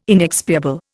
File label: "inexpiable", Indian